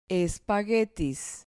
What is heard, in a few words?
"espaguetis", Spanish